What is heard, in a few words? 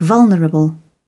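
"Vulnerable", British English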